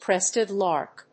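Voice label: "crested lark", English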